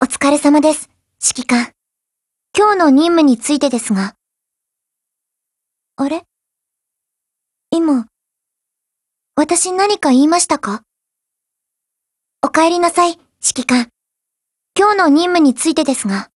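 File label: HELLO Japanese, Voice; GF Alarm; ACR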